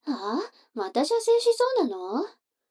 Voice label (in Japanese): 女の子台詞